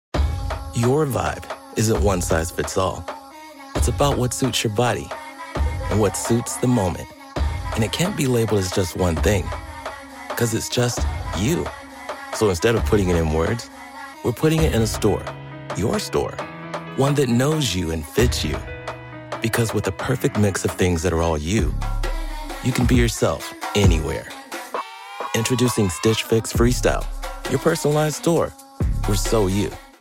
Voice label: english american others

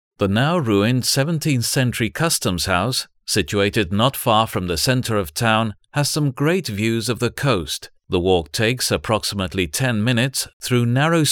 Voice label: english british others

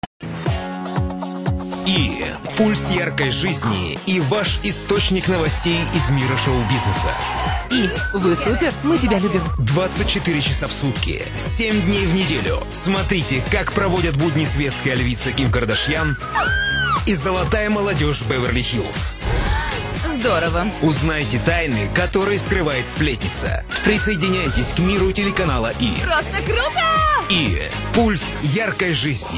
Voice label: russian tv shows

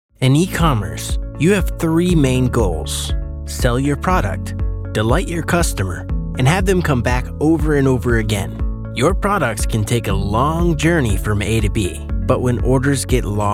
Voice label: english american explainer videos

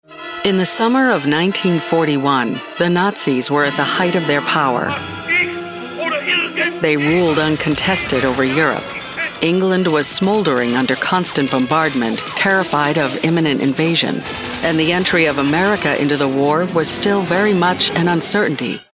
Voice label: english american documentaries

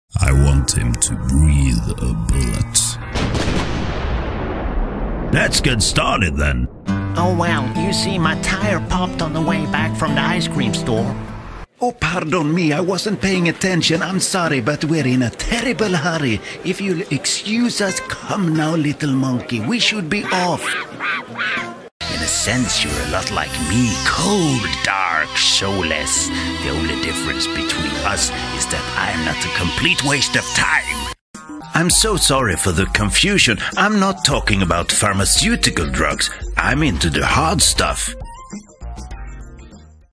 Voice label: english animation

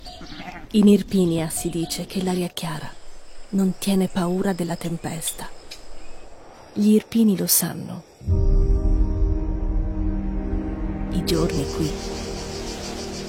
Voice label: italian corporate videos; professionelle italienische Schauspielerin

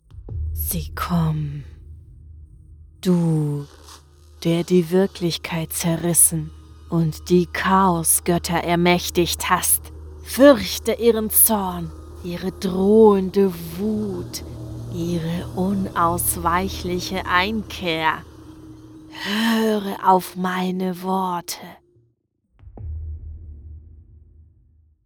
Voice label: german video games

